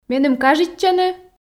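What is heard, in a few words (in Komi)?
меным кажитчӧны, Komi, female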